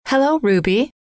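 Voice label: hello, female; trainer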